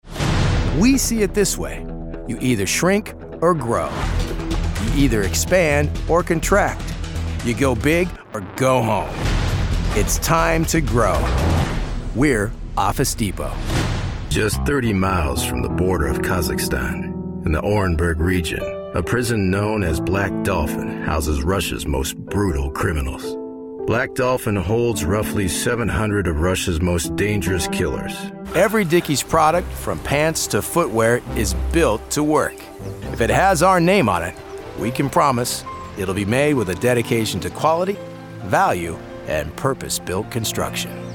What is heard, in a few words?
Narrations VO